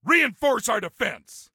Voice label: Defend Reinforce, Soldier Male